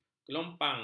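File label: "kelompang", Malay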